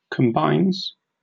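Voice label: "combines", British English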